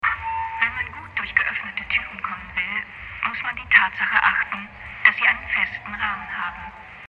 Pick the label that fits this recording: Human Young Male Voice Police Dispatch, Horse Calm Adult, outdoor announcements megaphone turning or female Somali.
outdoor announcements megaphone turning